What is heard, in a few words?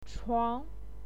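"chuang", Mandarin Chinese, second tone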